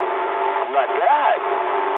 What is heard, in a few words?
eng trick mood low, speech eng; War Thunder